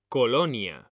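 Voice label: "COLONIA", Spanish; mekanta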